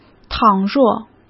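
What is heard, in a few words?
"倘若", Mandarin Chinese; chinese flashcards; hsk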